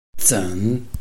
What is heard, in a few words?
"zen3", Chinese